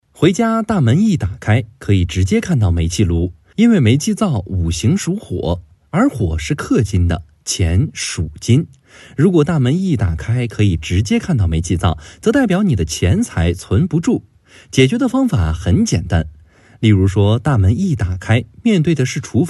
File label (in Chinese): 风水配音